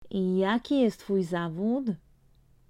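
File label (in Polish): "jaki jest twój zawód", Polish; Vocabulario: frases y palabras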